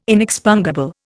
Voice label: "inexpungible", Indian